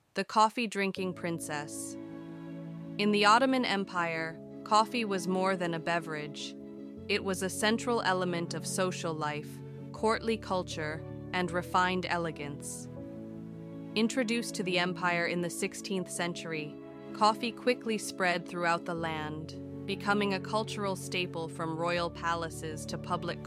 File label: ENG music, Listen to the artwork in English.; Coffee Drinking Princess; art collection